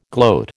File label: "gloat", American